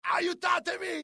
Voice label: alert, dialogue IT; mohaa; attack